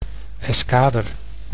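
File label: "escader", Dutch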